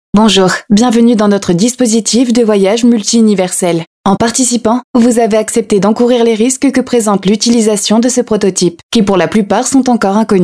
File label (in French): FR; French